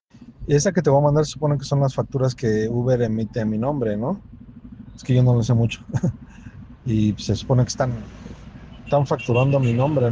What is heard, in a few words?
whatsapp ptt